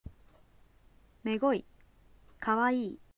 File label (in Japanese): kawaii, aizuben